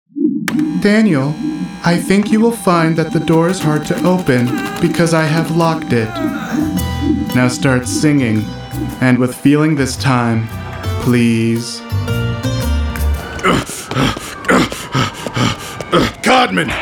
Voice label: men animation; Dynamic, mid-range, young voice. Natural and approachable.